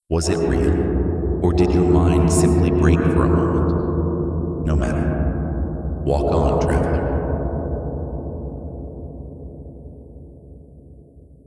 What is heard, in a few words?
narration; realmshift